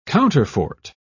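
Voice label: "counterfort", British English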